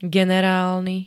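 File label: "generálny", Slovak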